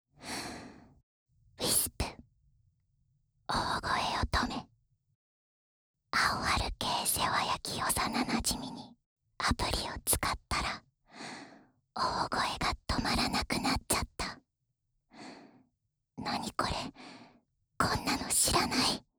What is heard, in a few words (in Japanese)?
タイトルコール; 幼馴染み